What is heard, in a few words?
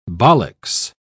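"bollix", British English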